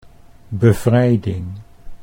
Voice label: "bevrijding", Dutch

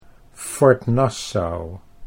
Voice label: "Fort Nassau", Dutch

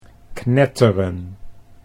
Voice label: "knetteren", Dutch

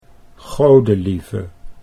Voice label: "Godelieve", Dutch

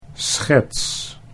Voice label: "schets", Dutch

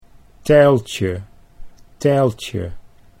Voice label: "teiltje", Dutch

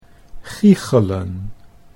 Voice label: "giechelen", Dutch

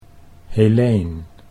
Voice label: "Heleen", Dutch

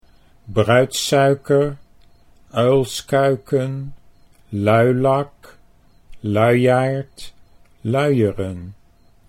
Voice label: bruidssuiker uilskuiken, Dutch

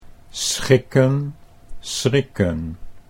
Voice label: "schikken schrikken", Dutch

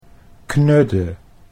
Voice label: "knudde", Dutch

